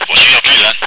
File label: backup, radio